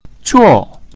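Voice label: "zuo" sit, Mandarin Chinese pinyin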